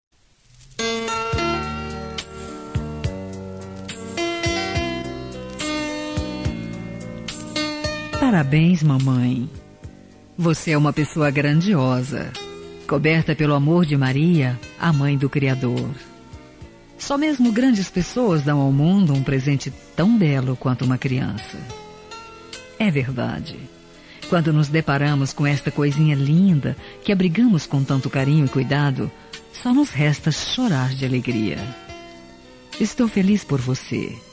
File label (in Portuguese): NASCIMENTO PARABENS FEM